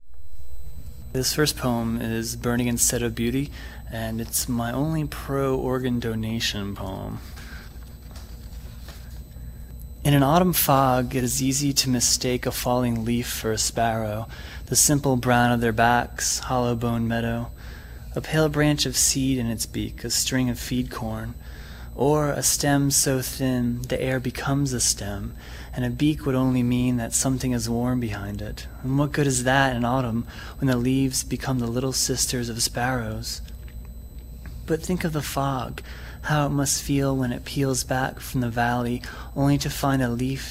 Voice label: reading